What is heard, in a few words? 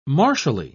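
"martially", British English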